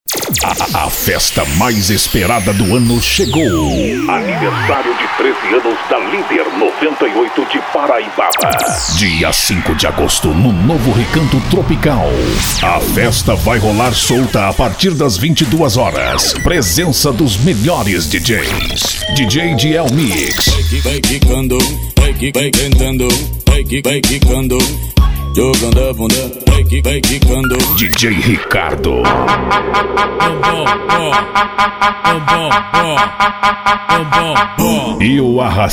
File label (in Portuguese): masculinas locução impacto